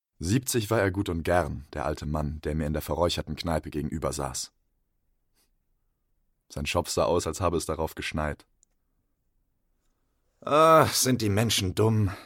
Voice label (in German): Hörbuch